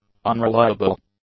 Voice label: "unreliable", english; adjectives

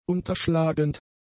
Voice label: "unterschlagend", German; adjectives

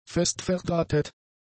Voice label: "festverdrahtet", german; adjectives

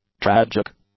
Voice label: "tragic", English; adjectives

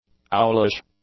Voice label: "owlish", English; adjectives

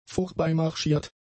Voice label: "vorbeimarschiert", German; adjectives

